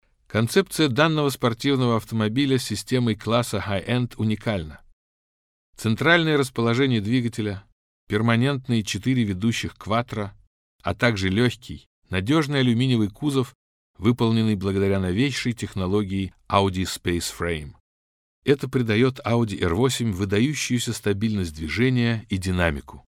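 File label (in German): russisch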